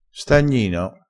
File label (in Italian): "stagnino", crespinese